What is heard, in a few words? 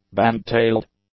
"Band-tailed", English; nouns